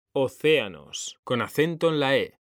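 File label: "océanos", Spanish; mekanta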